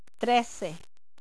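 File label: "Trece", Spanish; Time Instructor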